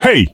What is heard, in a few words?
Voice Male Hey